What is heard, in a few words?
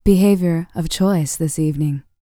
FEM SPOKEN, R&B Dance